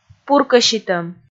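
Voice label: "пуркӧситӧм", Komi, female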